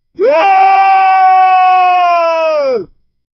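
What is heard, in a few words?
deep gruff pirate, adventurous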